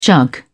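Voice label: "jug", British English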